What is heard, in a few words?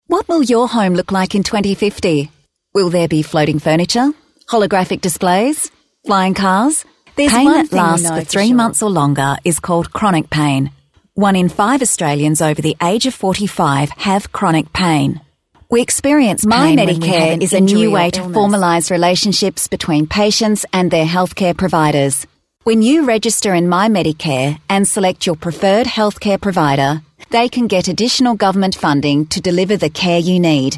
Natural